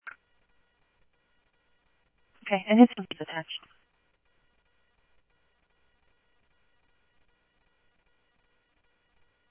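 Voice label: Simulcast police department, scanner audio trunk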